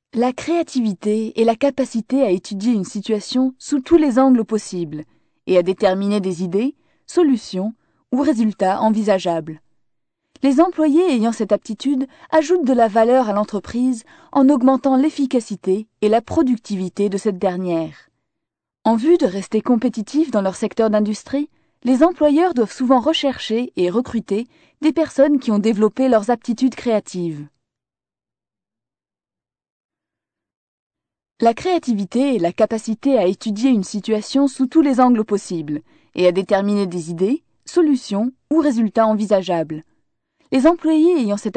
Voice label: French; foreign languages